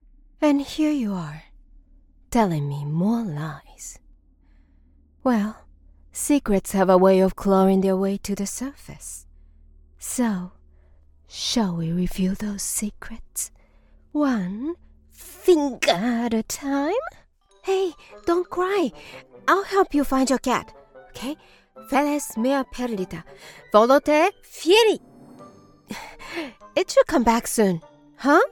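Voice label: Japanese accented English, female, Character Samples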